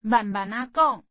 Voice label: speak slowly, Taiwanese